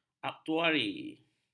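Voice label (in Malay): "aktuari", Malay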